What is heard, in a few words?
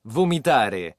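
"vomitare", Italian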